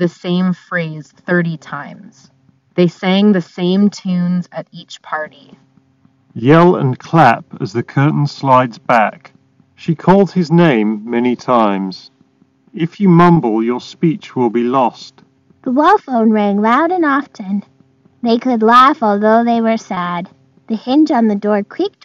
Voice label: Ideal microphone sample; 1More Colorbuds2

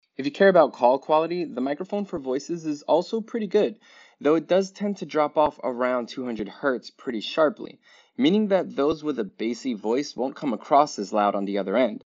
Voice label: Bose 700 headphones Mic sample

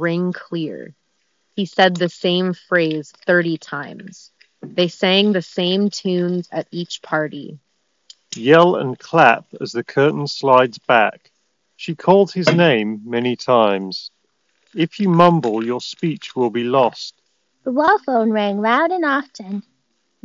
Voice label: Office microphone sample; Sony WH-1000XM5

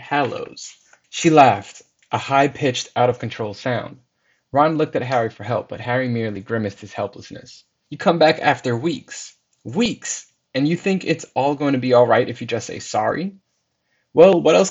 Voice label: Jabra Elite 75t voice sample

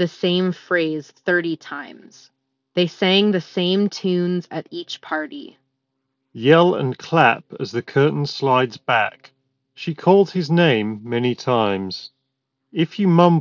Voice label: Ideal microphone sample; Cambridge Audio M100

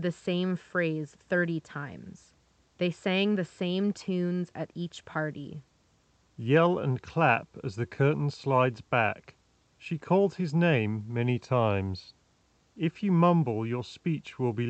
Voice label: Ideal microphone sample; 7Hz Salnotes Zero

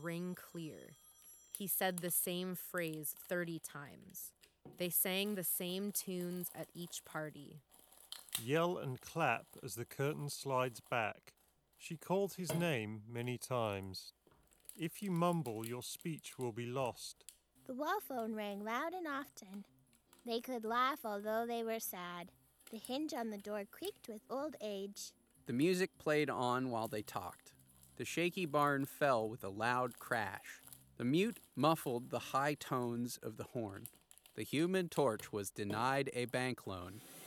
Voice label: HS65 Surround Office microphone sample; Corsair

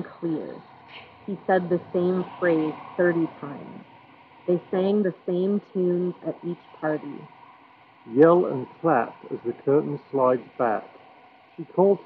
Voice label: Bowers Wilkins PX7 Street microphone sample

